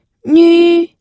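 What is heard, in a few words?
"nü", first tone, pinyin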